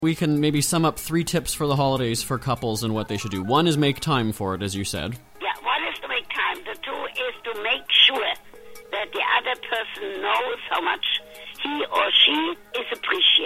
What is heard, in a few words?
promo